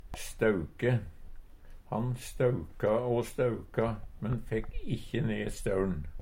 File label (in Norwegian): stauke, Numedalsmål